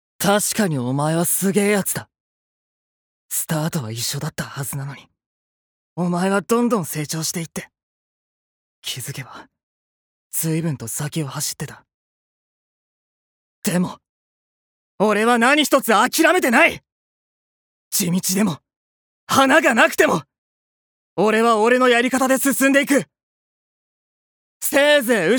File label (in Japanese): アニメ